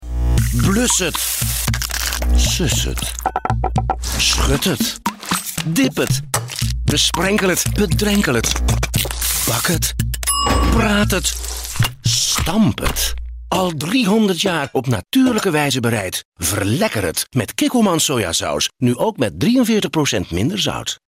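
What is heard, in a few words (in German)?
Dutch Dynamisch; Fremdtake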